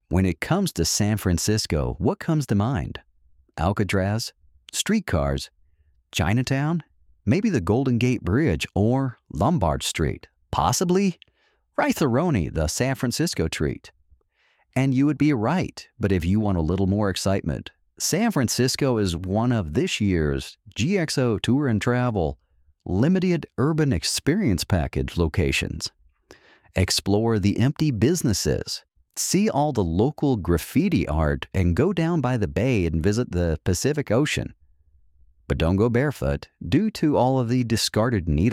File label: MALE; GXO TOUR SAN FRAN